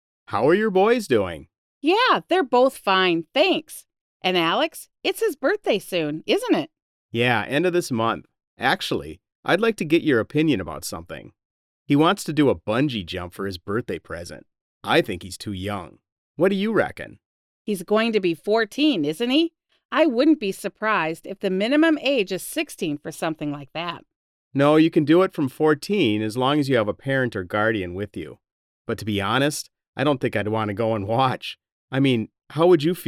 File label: Conversation; Real Heroes 4